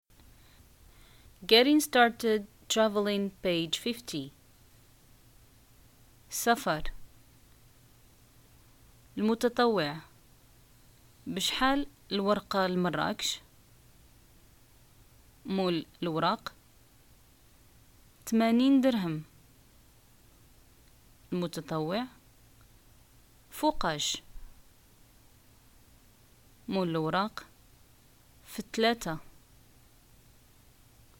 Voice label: dialogue, Arabic, North African, Moroccan